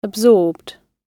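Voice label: "absorbed", British English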